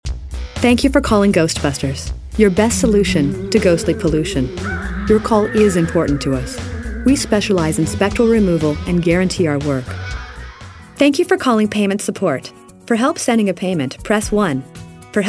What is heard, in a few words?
IVR Telephony